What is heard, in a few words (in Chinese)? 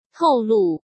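"透露", Mandarin Chinese; chinese-flashcards; hsk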